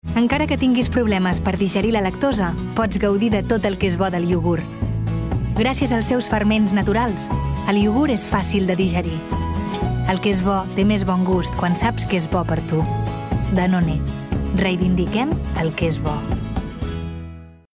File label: female catalan food comercial